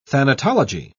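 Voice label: "thanatology", English, British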